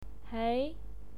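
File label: "hei", Mandarin Chinese pinyin, second tone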